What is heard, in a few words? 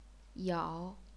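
"yao", chinese pinyin, third tone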